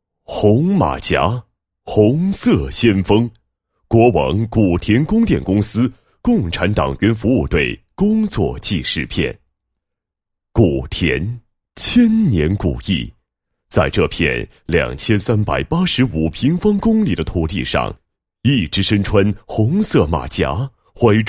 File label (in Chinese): 男-工作纪实-供电公司共产党员服务队工作纪实片脚本, 配音案例; 国网古田供电公司共产党员服务队工作纪实片脚本